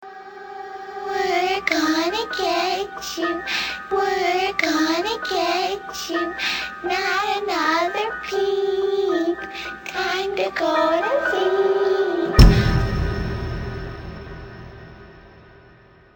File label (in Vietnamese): nhac chuong giong noi tieng tre con kinh di